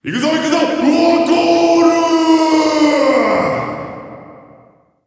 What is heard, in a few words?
scored voice